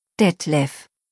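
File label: "Detlef", German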